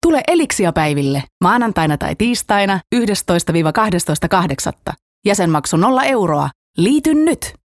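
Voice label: Finnish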